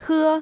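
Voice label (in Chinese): "喝" Chinese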